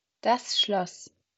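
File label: "Schloss", German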